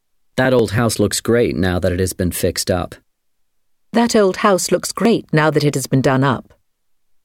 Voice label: British English